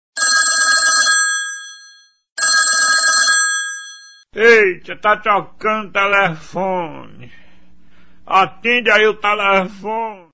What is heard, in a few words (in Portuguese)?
atende o telefone manhoso; atende o celular manhoso